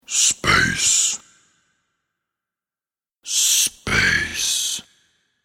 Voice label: Space Spoken, The word 'Space' spoken in a deep voice; Sci-Fi Voice